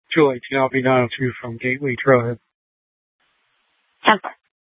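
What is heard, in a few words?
Scottsdale PD North Dispatch; Nth Dispatch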